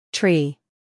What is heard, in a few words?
"tree", English